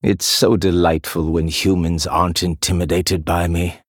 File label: Male Blood Elf; Kaelthas; Jaina; Idle